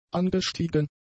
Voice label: "angestiegen", German; adjectives

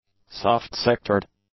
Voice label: "soft-sectored", english; adjectives

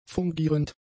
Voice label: "fungierend", German; adjectives

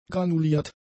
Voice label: "granuliert", German; adjectives

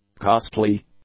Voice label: "costly", English; adjectives

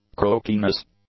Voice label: "croakiness", English; nouns

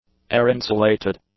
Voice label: "air-insulated", English; adjectives

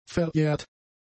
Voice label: "verjährt", German; adjectives

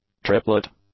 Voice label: "triplet", English

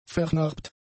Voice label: "vernarbt", German; adjectives

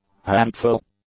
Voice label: "handful", English; adjectives

